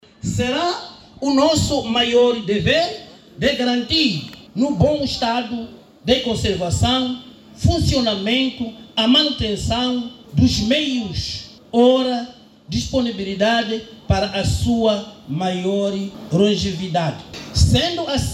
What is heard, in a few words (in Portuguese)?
Mensagem de Lideres Comunitarios